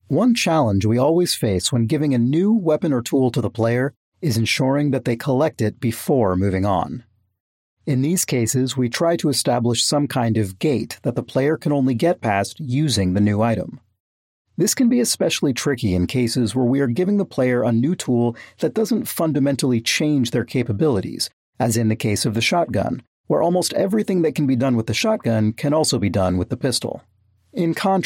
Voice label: commentary; shotgun gate